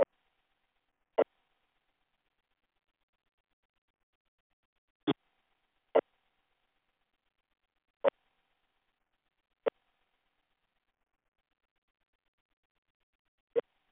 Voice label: Space to Ground; Expedition 39